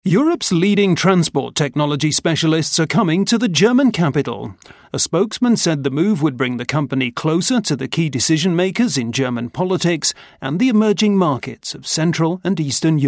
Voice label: commercial